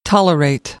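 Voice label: "tolerate", American, English; AmericanBritish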